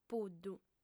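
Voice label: "puddu", female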